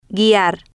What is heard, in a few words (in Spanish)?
"guiar" Spanish